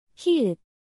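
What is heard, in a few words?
"ㅎ", Korean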